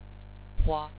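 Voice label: "hua1", Chinese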